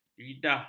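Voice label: "lidah", Malay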